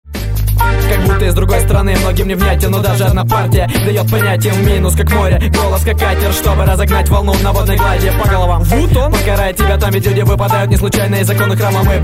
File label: rap